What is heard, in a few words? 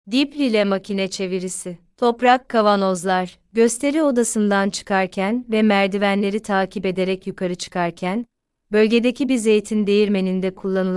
turkish